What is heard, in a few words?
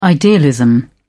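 "idealism", British English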